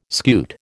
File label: "scute", American